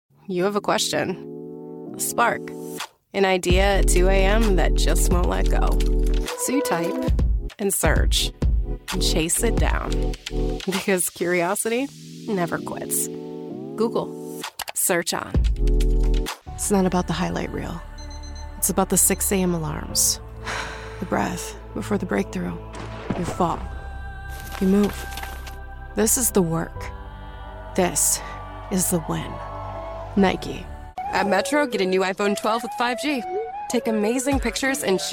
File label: COMMERCIAL